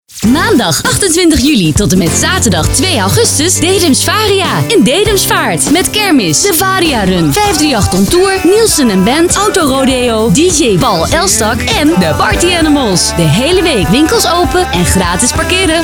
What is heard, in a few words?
Commercial; Dedemsvaria Dedemsvaart 2014